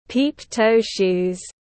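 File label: "peep-toe-shoes", English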